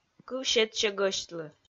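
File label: "кыв сетсьӧ гӧсьтлы", Komi, female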